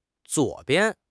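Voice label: "zuo3 bian5", Mandarin Chinese; HSK2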